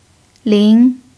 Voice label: "lin2", chinese